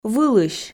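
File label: "вылысь", Komi, female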